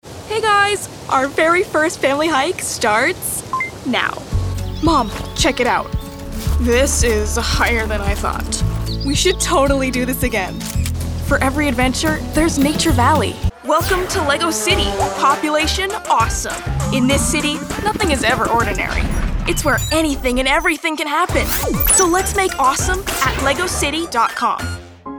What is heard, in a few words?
Commercial